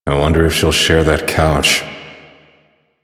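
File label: Citizen Male, English; Warframe; Mall; Citizens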